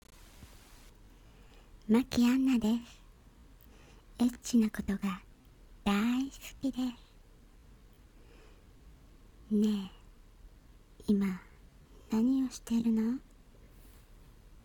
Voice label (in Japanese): 高音